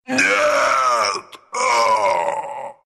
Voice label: death, ru; Husk

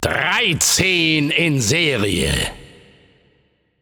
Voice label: Announcer German Spree 13 Kills; paladins

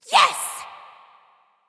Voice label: Emote Yes; Smite

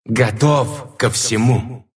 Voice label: move, ru

